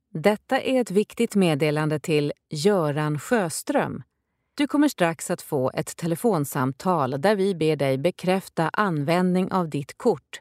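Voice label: Swedish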